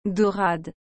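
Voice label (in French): "daurade", French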